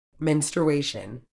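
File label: "menstruation", British English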